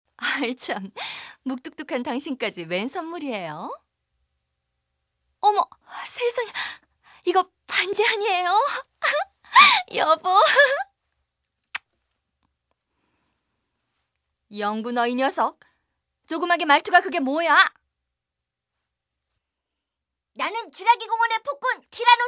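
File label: Korean female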